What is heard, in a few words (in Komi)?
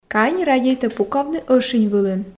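кань радейтӧ пукавны ӧшинь вылын, Komi, female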